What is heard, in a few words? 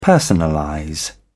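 "personalize", British English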